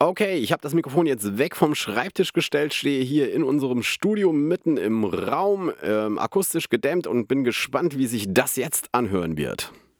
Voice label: yamaha ag01 studio

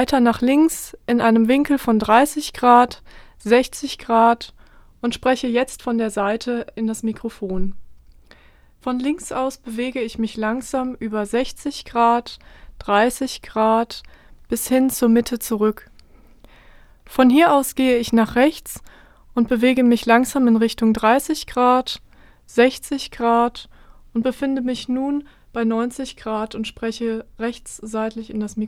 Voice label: off-axis; warm audio wa-47jr test

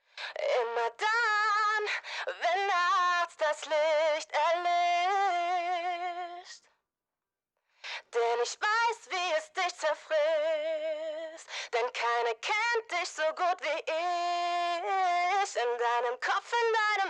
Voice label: megaphone; tc-helicon voicelive play

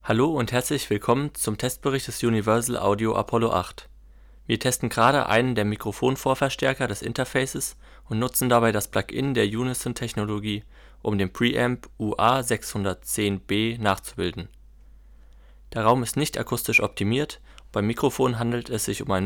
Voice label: vox presence; universal audio apollo 8; ua 610-b

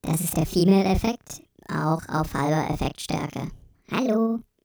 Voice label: tascam ministudio creator us-42 female

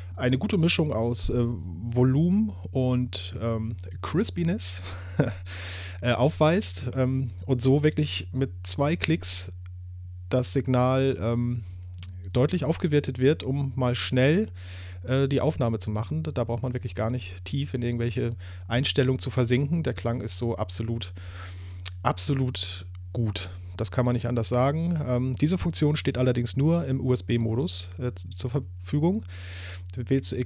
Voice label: rode podmic hd test usb com excit

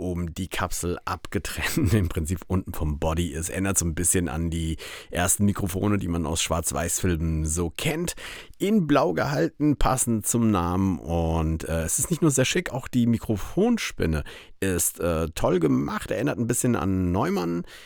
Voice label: bluebird sl, frontal; Blue Microphones

